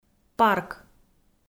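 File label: "парк", Komi, female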